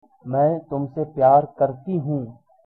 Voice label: i love you hindi

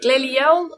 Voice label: christmas Faroese

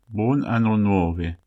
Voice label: new year, interlingua; Bon anno nove

